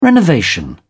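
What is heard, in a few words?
"renovation", English, British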